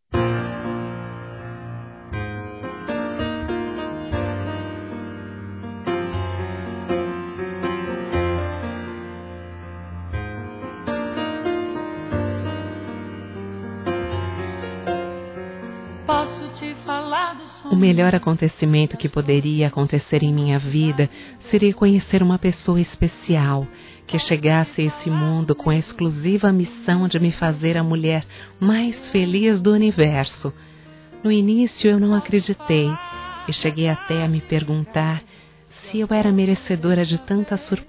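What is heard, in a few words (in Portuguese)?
Rom amo voce, feminino; Telemensagens; Românticas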